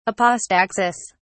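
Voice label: "apostaxis", British English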